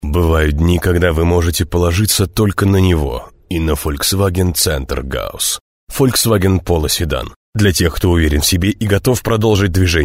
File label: ru commercial